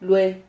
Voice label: "lue", Silabas

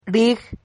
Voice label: "rig", silabas

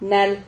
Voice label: "nal", Silabas

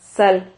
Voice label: "zal", Silabas

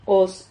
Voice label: "hos", Silabas

